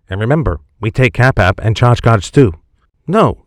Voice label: Voice Mimicry